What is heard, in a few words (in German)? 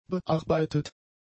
"bearbeitet", German; adjectives